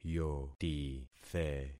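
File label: "yotithe", concatenated syllables; Affixing experiment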